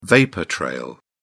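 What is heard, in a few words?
"vapour-trail", British English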